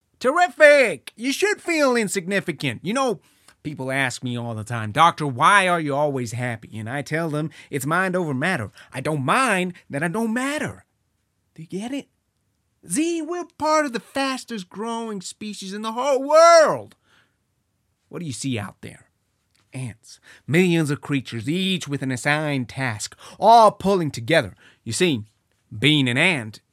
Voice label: Cartoon American Accent; Antz